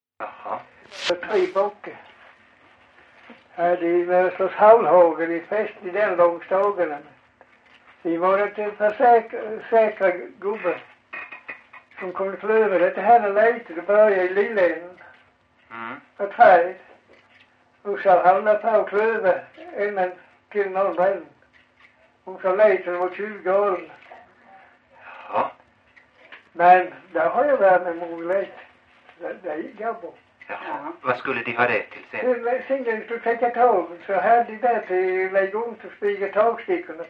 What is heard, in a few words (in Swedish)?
Farstorp, dialekter, sagor; Folkminnen; Svenska sagor